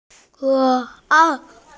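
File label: "ke3ai4", Mandarin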